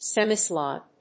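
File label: "semisolid", English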